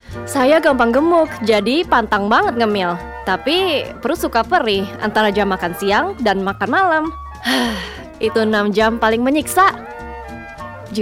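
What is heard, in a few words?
Indonesian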